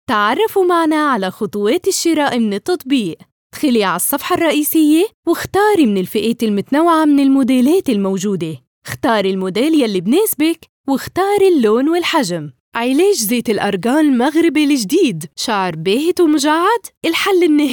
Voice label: Lebanese, voiceover

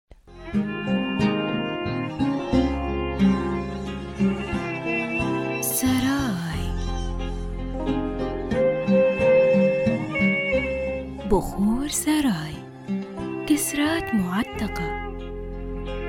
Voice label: kuwaiti Commercials

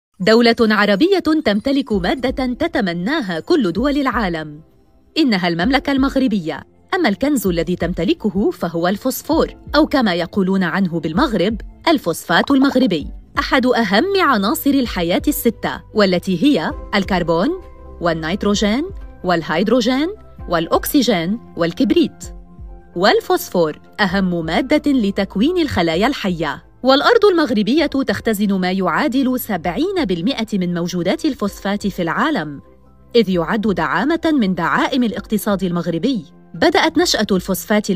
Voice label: MSA Lebanese Documentary, voiceover

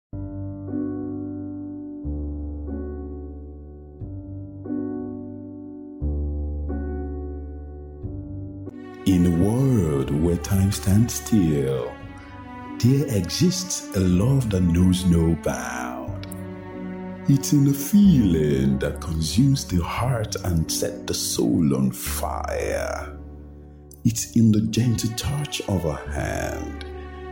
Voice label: Nigerian, Male Voices, voiceover

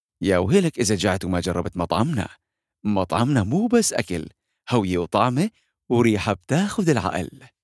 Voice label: syrian voiceover

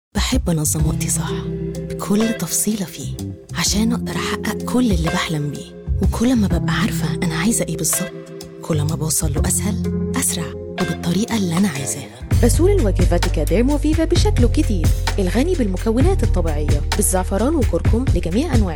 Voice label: Egyptian Commercial; Demoreel

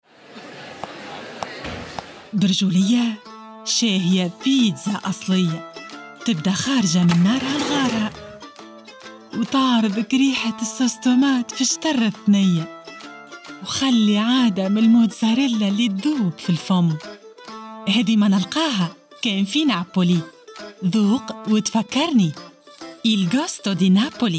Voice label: Tunisian Commercial; Demoreel

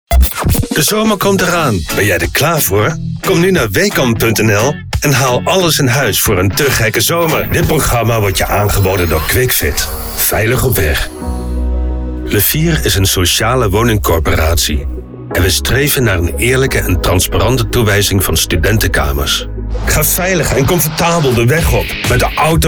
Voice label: Dutch Voiceover

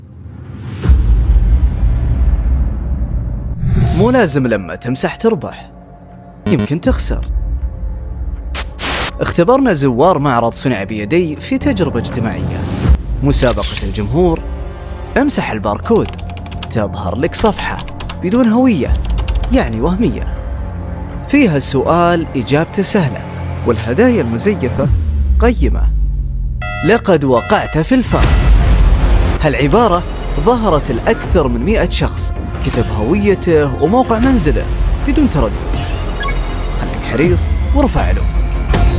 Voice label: Saudi

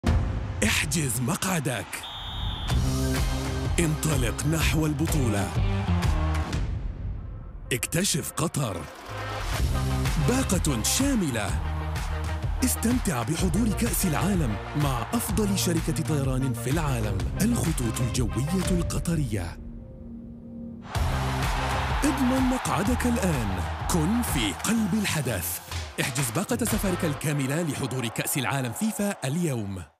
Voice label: MSA Egyptian, voiceover

